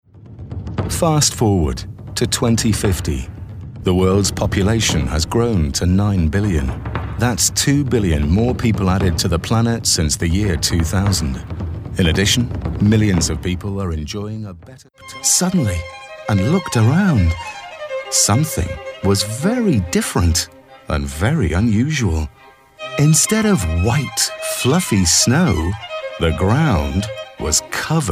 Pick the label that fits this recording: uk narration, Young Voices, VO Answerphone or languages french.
uk narration